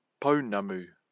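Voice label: "pounamu", Māori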